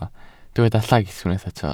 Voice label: "llaith", Welsh

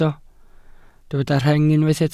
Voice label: "rheng", welsh

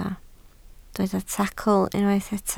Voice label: "tacl", Welsh

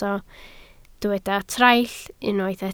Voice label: "traill", Welsh

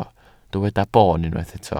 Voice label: "boon", Welsh

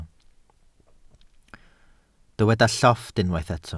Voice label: "llofft", welsh

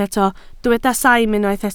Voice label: "saim", Welsh

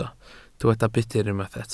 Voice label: "budr", Welsh

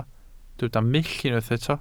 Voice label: "mull", Welsh

